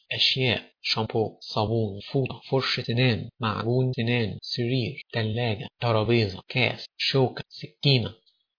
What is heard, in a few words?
egyptian